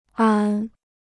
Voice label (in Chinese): "安", Chinese